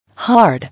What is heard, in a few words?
"Hard", English